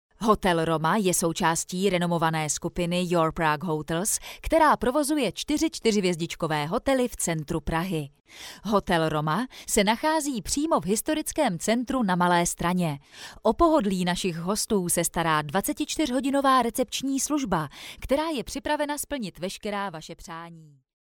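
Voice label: Czech, female, narration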